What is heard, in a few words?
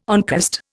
"oncost", Indian